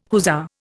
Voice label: "hussar", Indian